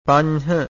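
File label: "panha", Pali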